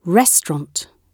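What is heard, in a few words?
"restaurant", British English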